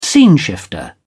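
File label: "sceneshifter", British English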